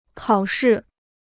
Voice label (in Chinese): "考试", Chinese